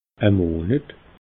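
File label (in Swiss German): "monet", Alsatian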